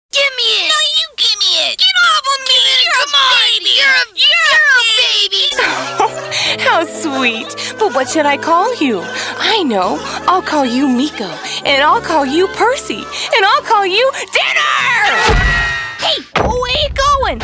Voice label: women animation